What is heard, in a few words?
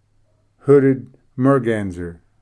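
"hooded merganser", English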